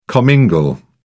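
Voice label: "commingle", English, British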